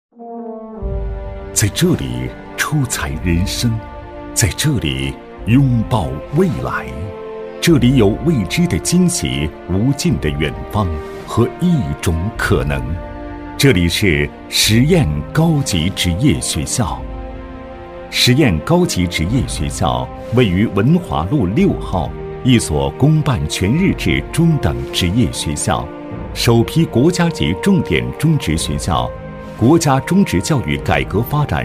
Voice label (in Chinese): 男 学校宣传片 职业学校; 十堰高级职业学校; 大气稳重